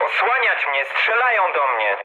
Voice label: aircraft damaged mood med, speech pol; War Thunder